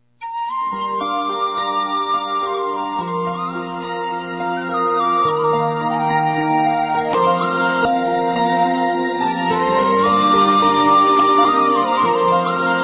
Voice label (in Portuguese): Vestibular Masculino; Para Filho (A); Telemensagens 2017; Formaturas